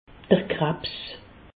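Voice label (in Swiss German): "krabs", Alsatian; medecine; cancer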